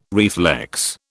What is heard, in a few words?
"reflex", British